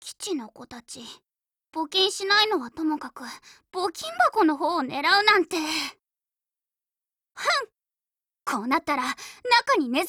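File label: DIALOGUE Japanese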